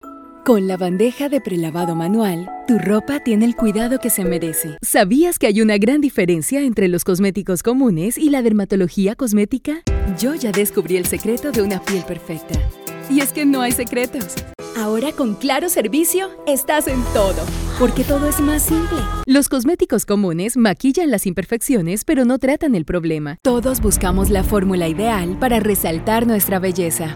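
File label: Spanish Latin America General; GoLocalise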